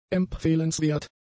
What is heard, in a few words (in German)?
"empfehlenswert", german; adjectives